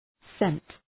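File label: "cent", English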